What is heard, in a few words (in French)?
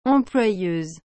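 "employeuse", French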